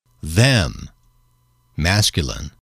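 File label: a Ellos, male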